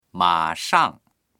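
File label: "ma3shang4", Chinese; mǎshàng; 马上; すぐさま、ただちに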